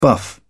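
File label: "buff", British English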